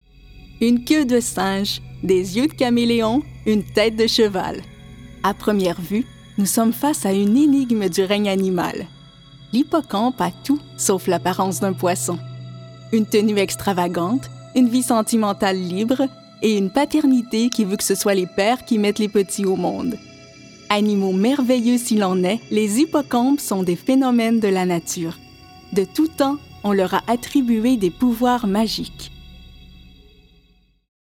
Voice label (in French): narration